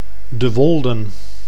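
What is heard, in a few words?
"De Wolden", Dutch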